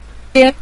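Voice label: "die", Mandarin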